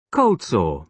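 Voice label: "cold sore", British English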